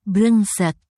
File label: indonesia, negative phrases